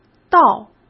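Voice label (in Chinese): "盗", Mandarin; chinese-flashcards; hsk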